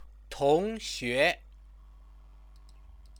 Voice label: "tongxue", Chinese